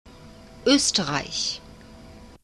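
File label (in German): "oesterreich", German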